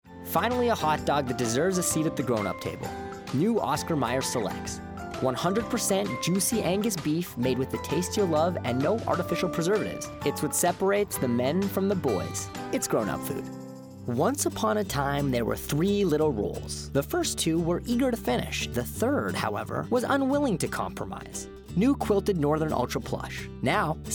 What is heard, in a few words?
Commercial